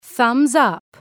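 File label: "thumbs up", English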